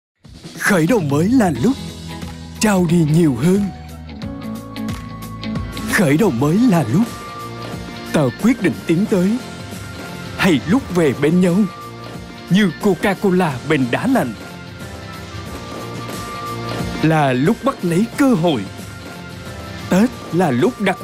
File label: Commercial